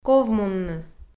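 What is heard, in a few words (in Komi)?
"ковмунны", Komi, female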